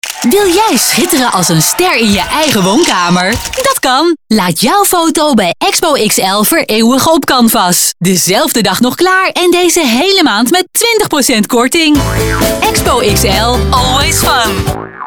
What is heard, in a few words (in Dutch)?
radiospot nl commercial; expo xl ster